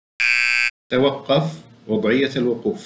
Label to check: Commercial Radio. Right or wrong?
Wrong